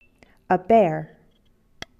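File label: "bear", English; animal; fichiers sons du vocabulaire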